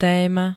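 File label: "téma", Slovak; zákl. myšlienka umel. diela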